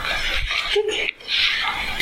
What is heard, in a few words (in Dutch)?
"tuurlijk", EVP